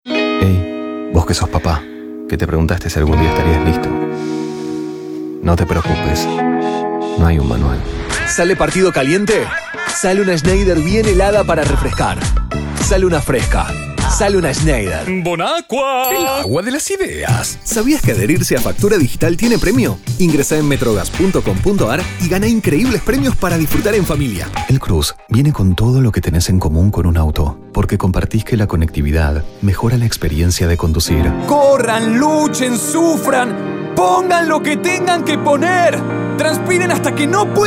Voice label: Locutor actor Commercial Argentinian Accent; Commercial Reel